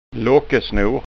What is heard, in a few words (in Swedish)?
"lockesnor", skanskaord